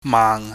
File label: "maang", Chinese